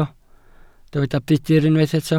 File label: "budr", Welsh